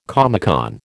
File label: "Comecon", American